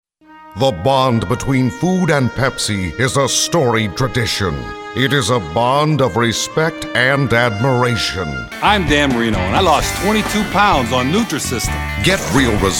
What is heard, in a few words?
comm, male voice talent